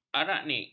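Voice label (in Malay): "araknid", Malay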